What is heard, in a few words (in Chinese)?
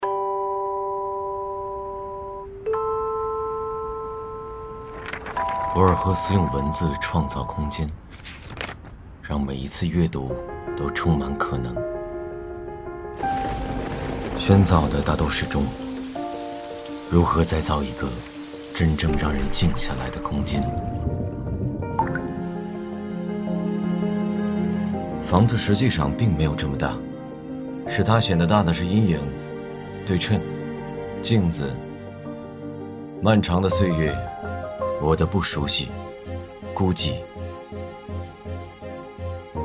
男国 微电影 独白; 亚朵